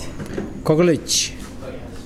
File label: ant, Mokilese